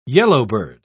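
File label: "yellowbird", British English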